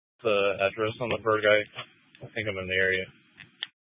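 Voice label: Sheriff Dispatch, Scanner audio recording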